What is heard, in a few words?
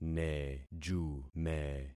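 "nejume", concatenated syllables; Affixing experiment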